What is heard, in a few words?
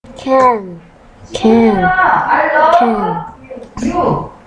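"can", spoken word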